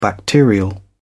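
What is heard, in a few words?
"bacterial", British English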